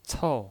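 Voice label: "cou", Mandarin Chinese, fourth tone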